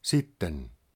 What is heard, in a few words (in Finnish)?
Then, Finnish male; Opas